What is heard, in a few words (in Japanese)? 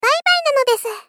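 Moe Bye Bye-bye nanodesu, moe loli girl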